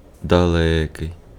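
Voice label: Ukrainian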